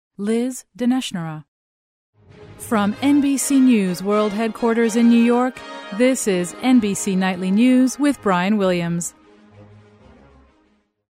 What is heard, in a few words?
Nightly News Open, Auditions; NBC